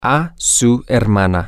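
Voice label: to Your Sister, Spanish, male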